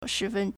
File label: "shi2fen1", Chinese